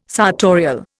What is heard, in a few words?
"sartorial", Indian